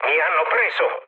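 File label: Italian aircraft destroyed mood low, speech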